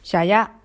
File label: thanks shanghainese